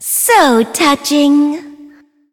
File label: levelup en; cookie run